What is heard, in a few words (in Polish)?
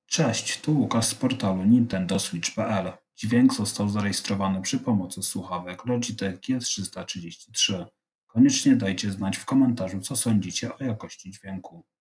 nagranie logitech g33